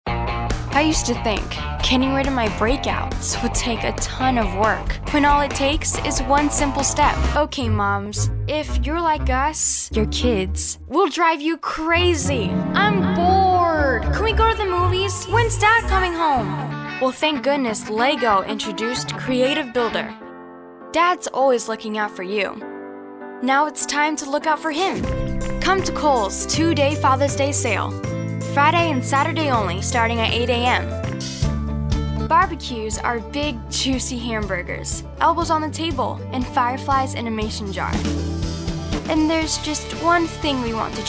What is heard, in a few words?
Commercial